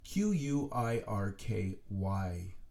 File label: Quirky, spelled out; letters being spelled out; Fill in the gap